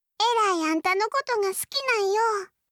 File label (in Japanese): 好きです 徳島; 伊織